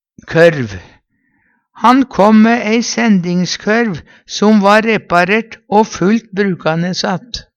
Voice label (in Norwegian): korv, Numedalsmål